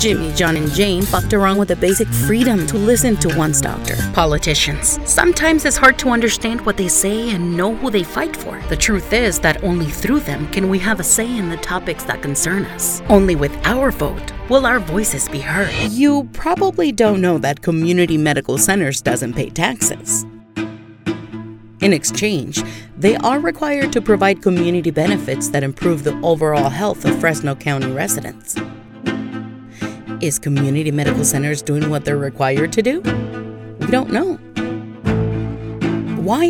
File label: English Political; Hispanic Political Voiceover